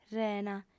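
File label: "rena", female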